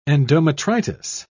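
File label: "endometritis", British English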